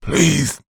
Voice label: voice male in peril says "please"; human